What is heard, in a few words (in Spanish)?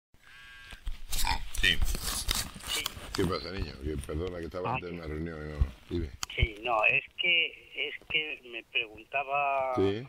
Conversacion Telefonica; Villarejo- Lopez del Hierro; cospedal